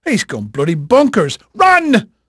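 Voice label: English male, voice; Pirates Of The Caribbean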